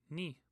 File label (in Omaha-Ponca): "ni", Omaha language